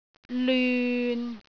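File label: "lyun6", Cantonese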